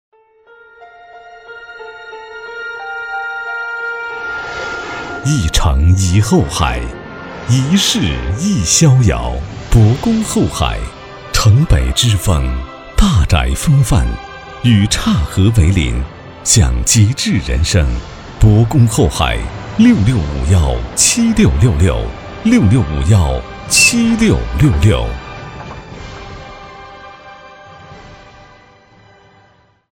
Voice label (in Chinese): 男 广告; 铂宫后海